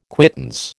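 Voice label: "quittance", American English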